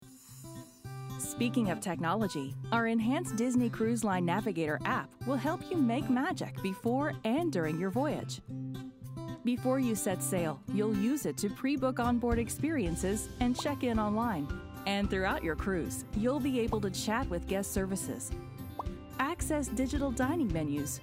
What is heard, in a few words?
english american explainer videos